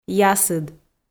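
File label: "ясыд", Komi, female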